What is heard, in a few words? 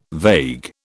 "vague", British